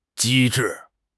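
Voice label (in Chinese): "机智", Chinese; HSK6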